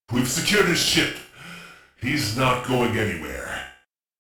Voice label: Crew Male en; Warframe; Jade Shadows Quest